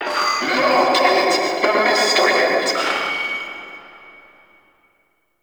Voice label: Vocal Loudspeaker; Warframe; Duviri; Ambience; Town Escape; Dax